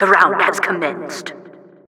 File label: announcer tournament started